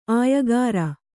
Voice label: "ಆಯಗಾಱ", Kannada